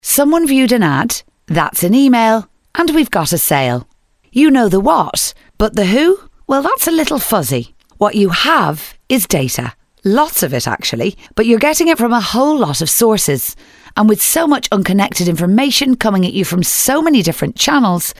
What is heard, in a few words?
corporate